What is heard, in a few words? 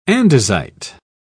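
"andesite", English, British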